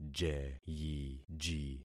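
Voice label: "jeyiji", concatenated syllables; Affixing experiment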